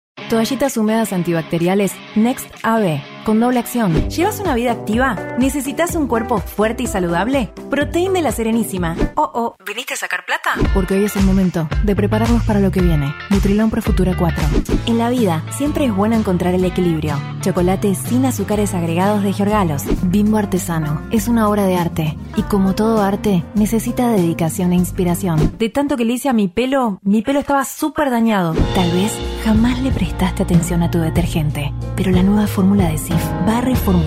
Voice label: spanish argentinian commercial

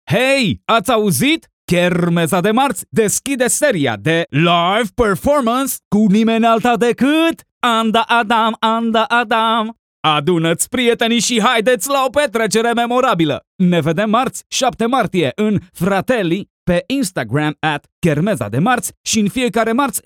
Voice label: romanian others

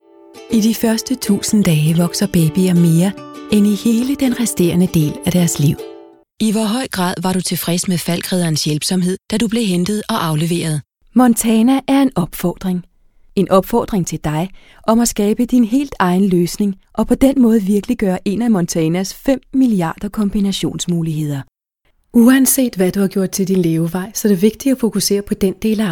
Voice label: danish corporate videos

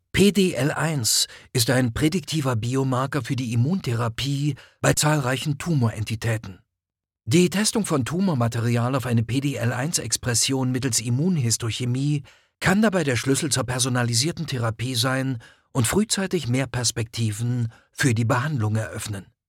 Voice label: german medical narration; Allemand; Allemagne; acteur et doubleur professionnel all

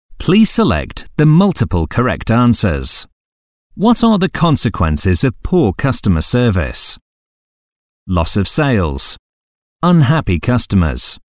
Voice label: english british e-learning

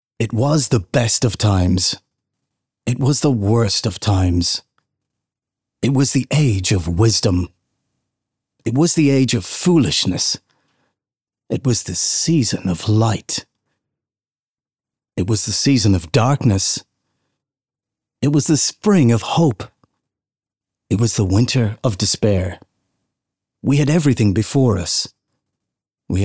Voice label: english irish audiobooks, irish voice actors

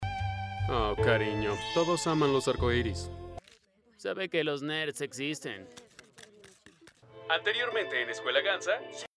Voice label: spanish mexican animation

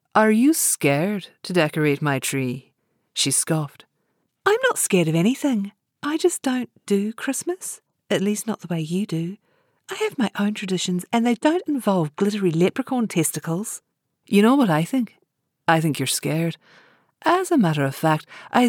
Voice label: english new zealand audiobooks

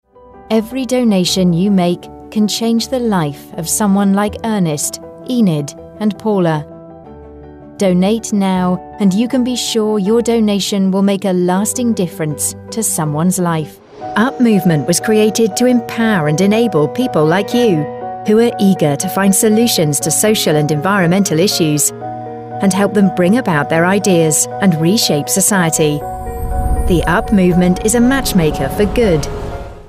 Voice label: english british corporate videos

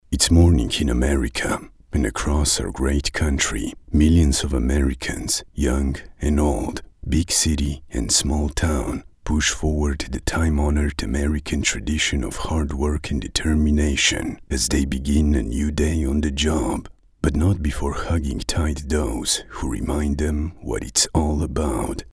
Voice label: english political ads

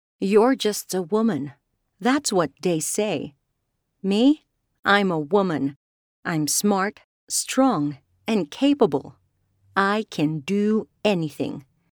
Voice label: english narration

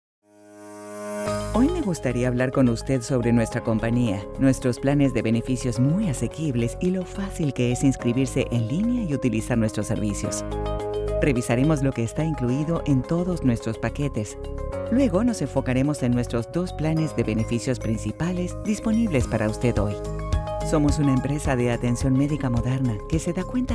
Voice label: spanish latin american corporate videos

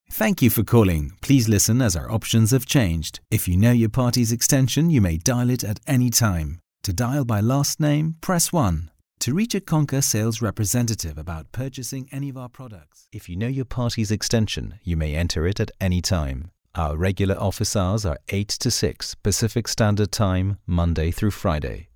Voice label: english international ivr

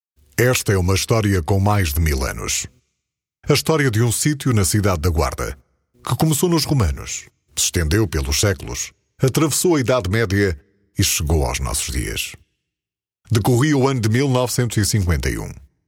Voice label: portuguese e-learning

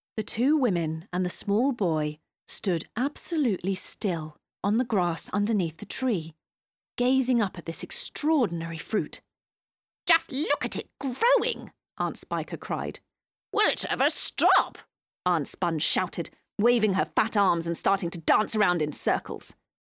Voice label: english british animation

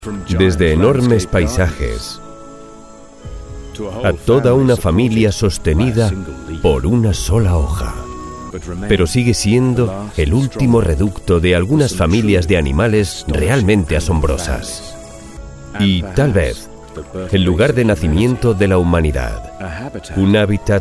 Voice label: spanish documentaries, spanish voice actors

